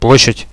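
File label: on the square, radio, Russian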